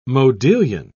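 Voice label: "modillion", British English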